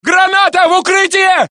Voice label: Russian; mohaa